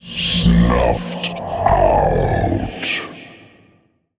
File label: Male Darkness Attack